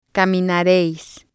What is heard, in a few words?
"caminareis", spanish